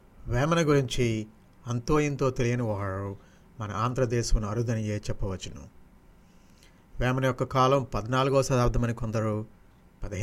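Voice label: Telugu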